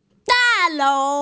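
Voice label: "da lou", Mandarin Chinese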